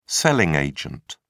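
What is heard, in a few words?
"selling agent", British English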